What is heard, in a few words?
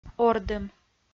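"ордым", Komi-Zyrian, female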